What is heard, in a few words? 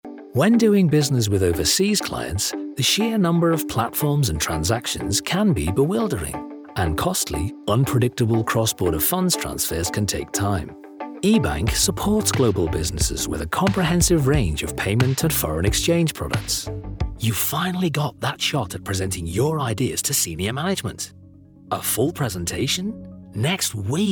Explainer